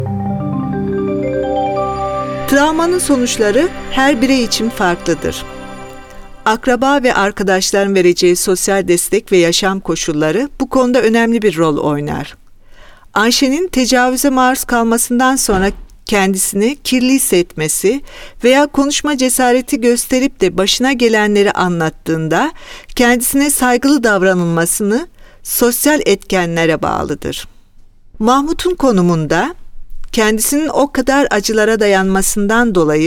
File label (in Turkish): Türkçe; NAWA